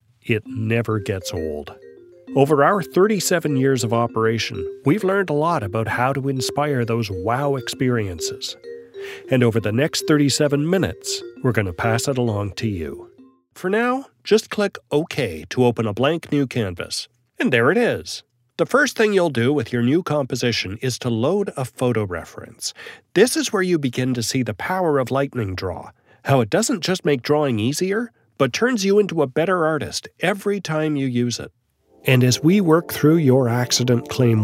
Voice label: Narration Demo